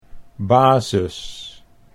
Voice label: "basis", Dutch